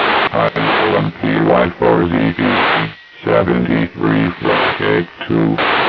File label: synthesized voice; Cape2